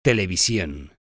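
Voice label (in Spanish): "television", Spanish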